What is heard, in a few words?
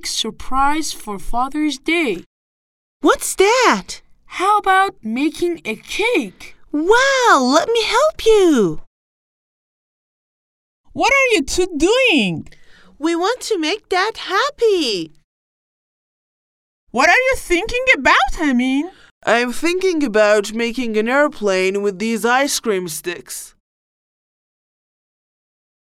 conversation